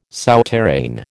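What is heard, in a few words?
"souterrain", American English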